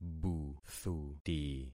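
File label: "buthuti", concatenated syllables; Affixing experiment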